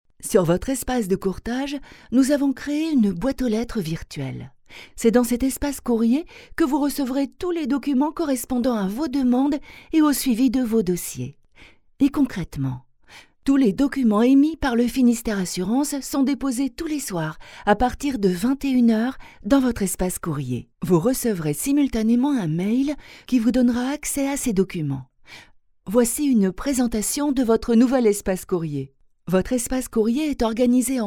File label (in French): e-learning